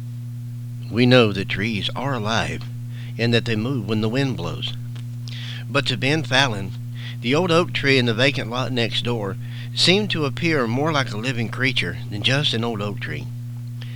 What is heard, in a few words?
Narration